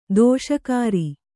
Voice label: "ದಿಶಾಕರಿ", kannada